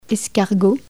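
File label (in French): "escargot", French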